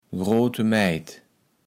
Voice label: "groote Mäjd", kaevels platt

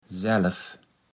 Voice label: "saelf", Platt

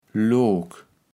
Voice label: "Look", kaevels platt

